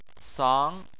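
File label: "sang" tone 2, Chinese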